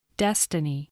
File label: "destiny", english spelling